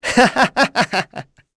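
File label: vox Happy, voices heroes English; Lucias; kingsraid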